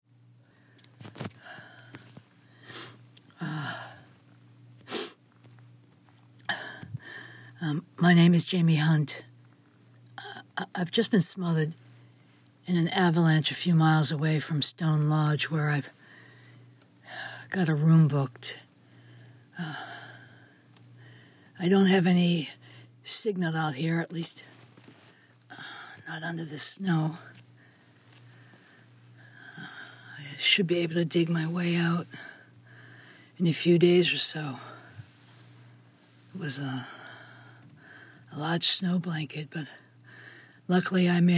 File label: monologue; Snow Time At All; monologuemonday